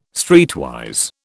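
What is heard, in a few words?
"streetwise", British English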